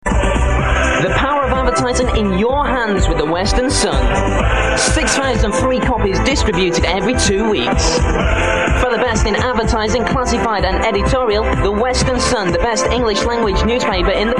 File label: ads; western sun